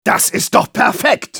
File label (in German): positive vocalization, de; Engineer